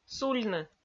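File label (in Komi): "сульны", Komi, female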